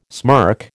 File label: "smirk", American